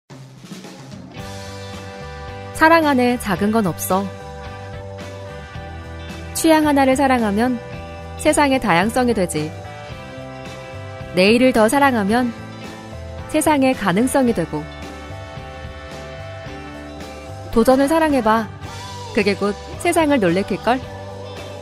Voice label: 광고 담백한 자연스러운 30대 20대 일반인

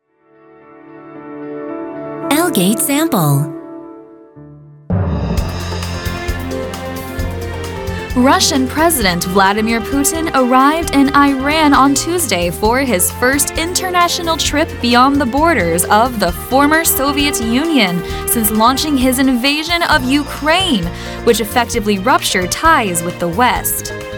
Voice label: 영어 여 앵커톤

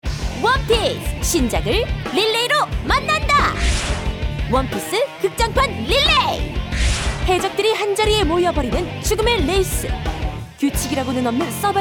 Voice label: 힘찬 애니메이션 20대

